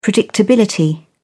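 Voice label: "predictability", English, British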